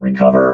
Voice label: "recover", robot

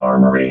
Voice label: "armoury", robot vox

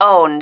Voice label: "owned", female

